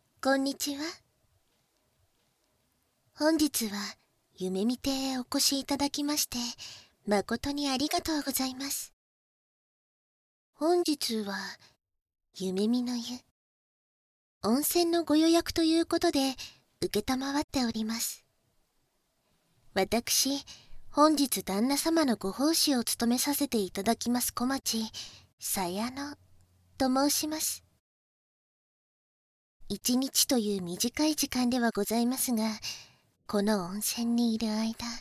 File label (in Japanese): オープニング（バイノーラルマイク収録 効果音なし）